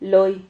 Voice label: "loi", Silabas

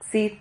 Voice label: "xid", Silabas

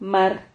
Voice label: "mar", Silabas

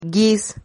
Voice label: "guiz", Silabas

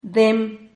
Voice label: "dem", Silabas

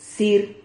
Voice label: "cir", Silabas

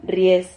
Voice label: "rriez", Silabas

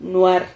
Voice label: "nuar", Silabas

